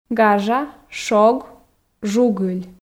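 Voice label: "гажа шог жугыль", Komi, female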